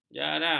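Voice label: "jarang", Malay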